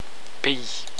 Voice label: "pays", french